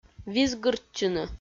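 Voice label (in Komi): "визгыртчыны", Komi, female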